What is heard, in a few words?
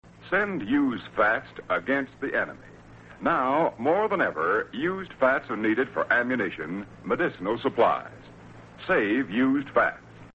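public service announcement save used fat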